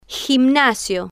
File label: "gimanasio", spanish